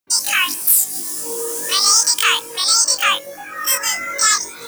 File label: uwu chipmunk